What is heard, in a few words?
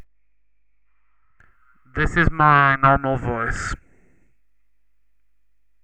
normal voice